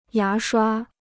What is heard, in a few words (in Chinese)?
"牙刷", Chinese